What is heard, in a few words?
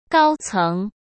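"高层", Mandarin Chinese; chinese-flashcards; hsk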